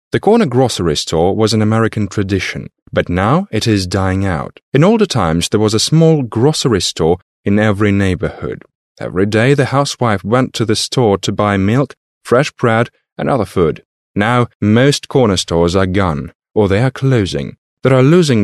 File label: british english male